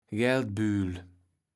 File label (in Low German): "Geldbühl", Platt